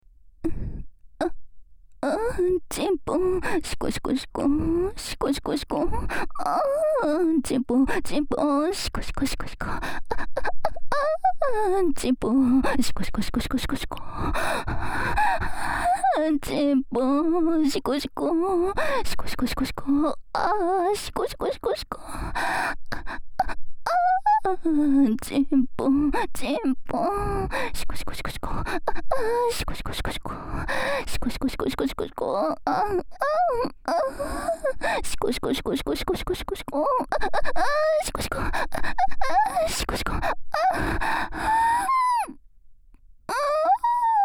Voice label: サブキャラ 右 淫語連呼 シコシコ射精, ASMR

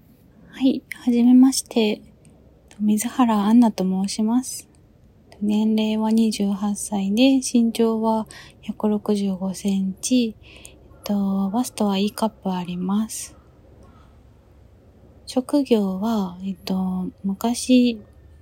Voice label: 自己紹介インタビュー

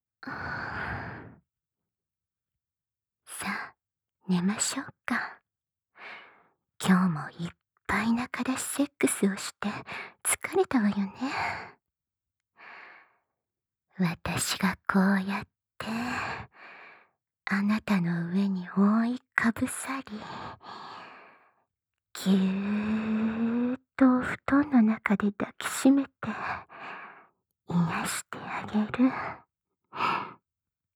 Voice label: おやすみボイス

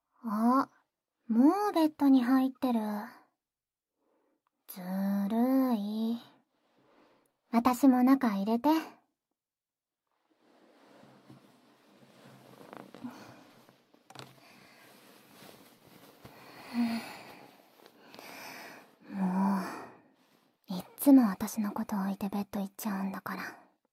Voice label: 添い寝 ASMR; 匂いフェチ彼女の添い寝ASMR

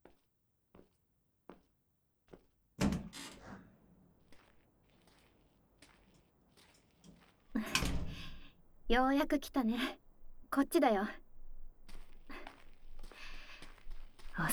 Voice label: ねっとり 吐息 ＆ 淫語 エロ囁き; チンポトレーニング

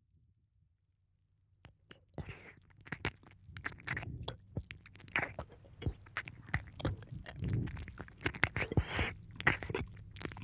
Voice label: 誰にでもできるスローオナニーのおうよう（耳舐め波乗り変則ハンドテク）, ASMR

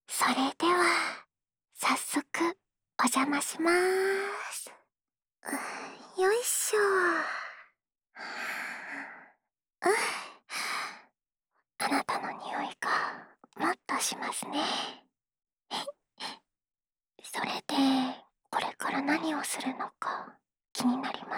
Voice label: こしょこしょ話とお耳ふーふー(左)

